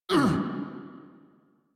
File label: Pain; Warframe; Vem Tabook; en